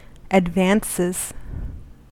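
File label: "advances", US English; pronunciations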